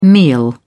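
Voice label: "meal", British English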